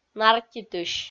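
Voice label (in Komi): "нартитысь" Komi, female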